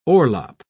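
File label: "orlop", British English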